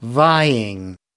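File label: "vying", American English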